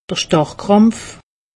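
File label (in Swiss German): "starrkrampf", Alsatian; medecine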